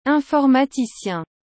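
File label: "informaticien", French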